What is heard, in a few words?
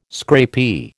"scrapie", American